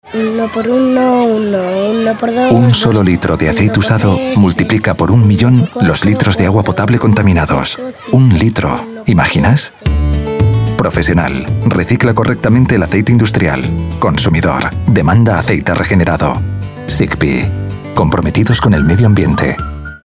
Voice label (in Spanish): Cuña; SIGPI 2015